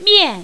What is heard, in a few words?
"mian4", mandarin, female